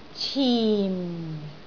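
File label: "cim4", Cantonese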